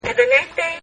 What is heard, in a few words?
Toy Says Have Nice Day, cartoon voice; Cartoon Voices; Cartoons; Partners In Rhyme